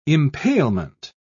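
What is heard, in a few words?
"impalement", British English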